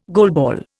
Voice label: "goalball", Indian